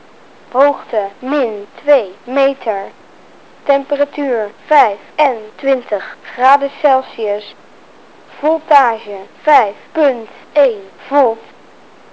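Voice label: msg nl f, Dutch, female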